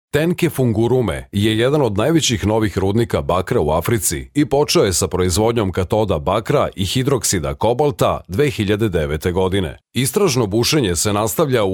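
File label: Serbian male voice over, upbeat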